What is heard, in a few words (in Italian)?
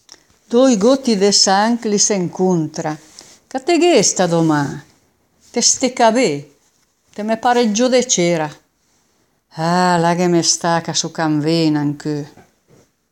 Dialetto di Albosaggia; Dói góti de sanch